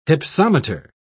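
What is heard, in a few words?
"hypsometer", British English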